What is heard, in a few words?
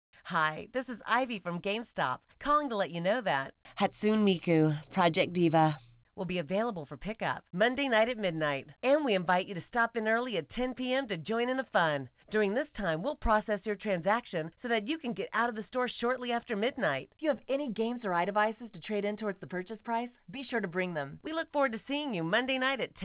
voice message; gamestop; project diva f